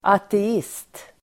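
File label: "ateist", Swedish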